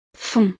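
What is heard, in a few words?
"font", French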